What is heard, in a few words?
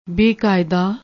"bekayadaa", Punjabi